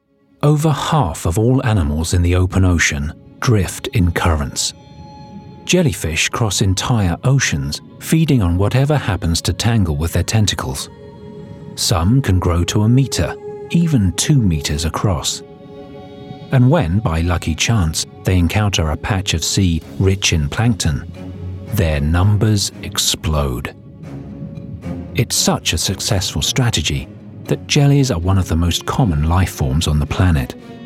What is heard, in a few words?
DOCUMENTARY